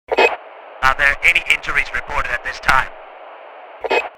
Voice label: Firefighter radio chatter are there any injuries reported